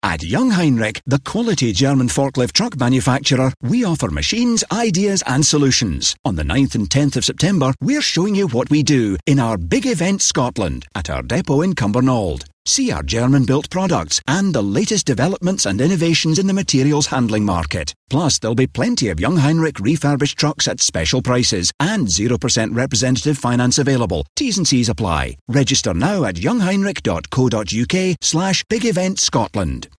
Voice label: recruitment radio ad; Jungheinrich; Radio Airtime Media

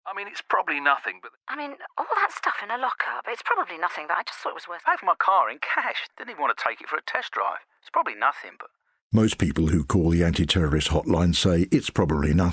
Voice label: radio advert; counter terrorism publicity campaign launched in london today

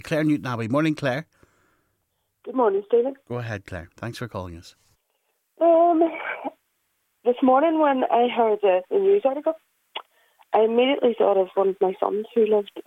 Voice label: caller emotional response to bomb attack; bbcnolan

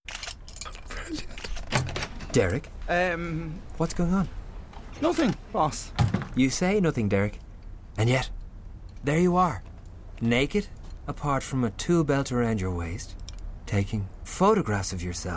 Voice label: radio ad; hailo dublin; toolbelt

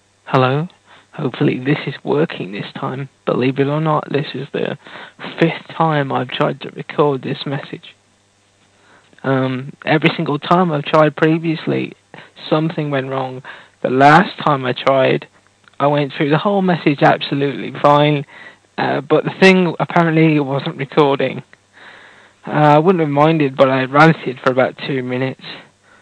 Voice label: bad news in a british accent

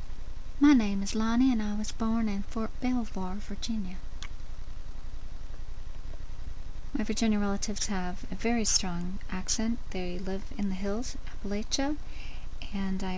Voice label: accents from places i ve lived

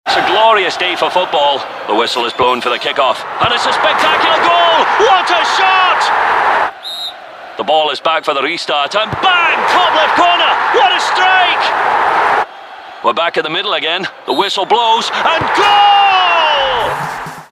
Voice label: commentator

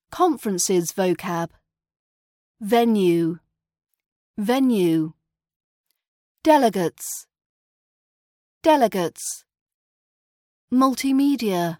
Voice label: conference vocab rp british accent

